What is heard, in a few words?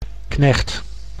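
"knecht", Dutch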